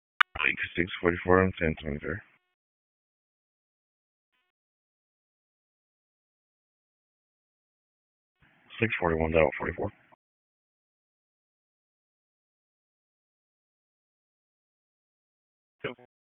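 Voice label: Sheriff's Office Dispatch; wake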